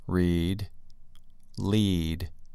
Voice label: "read" "lead"

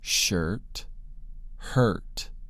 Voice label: "shirt" "hurt"

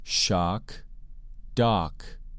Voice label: "shock" "dock"

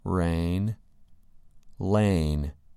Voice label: "rain" "lane"

